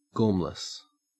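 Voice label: "gormless", Australian English; pronunciations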